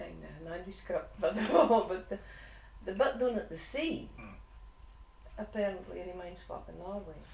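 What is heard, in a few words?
Northern and Insular Scots, Shetland - Voe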